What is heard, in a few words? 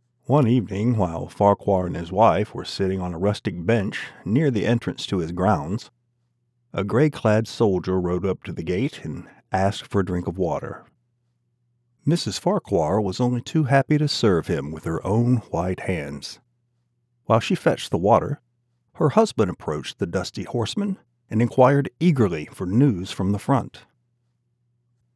Audio Book Sample; An Occurance at Owl Creek Bridge; Ambrose Bierce